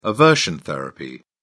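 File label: "aversion therapy", British English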